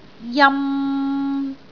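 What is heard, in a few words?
"jam3", Cantonese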